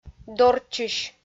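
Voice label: "дорччысь", Komi, female; kpv audio dict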